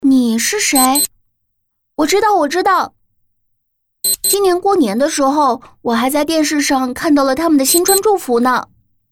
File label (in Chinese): 对口型 汇报片; 昆山市双拥